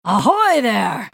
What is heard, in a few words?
female night elf greetings